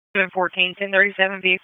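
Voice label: Dispatch 1, P25; WSO DISP 1; wake p25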